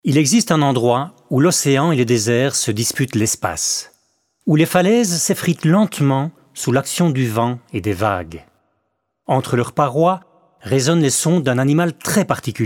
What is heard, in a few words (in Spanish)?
TONO NARRATIVO